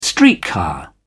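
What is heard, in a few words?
"streetcar", English, British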